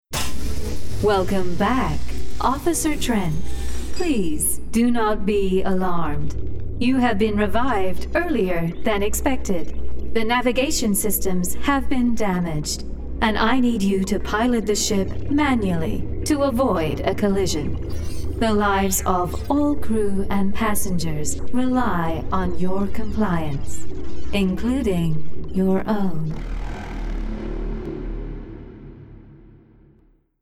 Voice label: Gaming Computer US; Computer (US accent)